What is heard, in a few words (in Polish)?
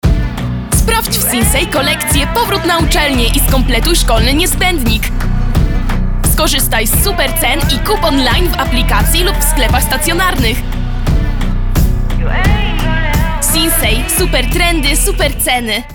Reklama, kobiecy